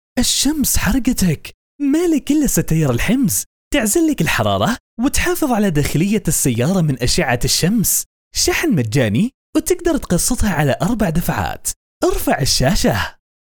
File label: saudi